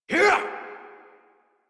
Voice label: Adult Operator Melee Swing exert; New War

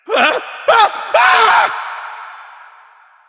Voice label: Male Older; Ostron; Warframe; Cetus Invasion; Villagers; en

